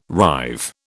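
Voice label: "rive", British English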